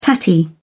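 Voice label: "tatty", British English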